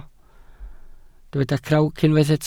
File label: "crawc", Welsh